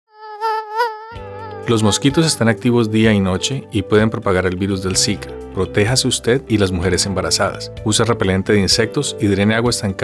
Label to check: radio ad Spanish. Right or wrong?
Right